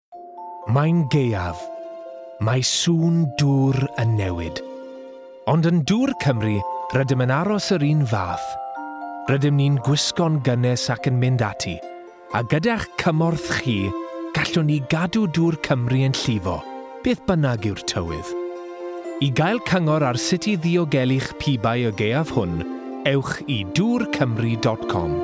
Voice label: Commercial; Dwr Cymru